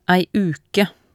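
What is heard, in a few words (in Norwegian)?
"ei uke", Norwegian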